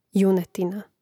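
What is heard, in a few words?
"junetina", Croatian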